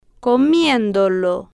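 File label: "co-mien-do-lo", Spanish, female, slow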